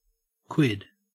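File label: "quid", Australian English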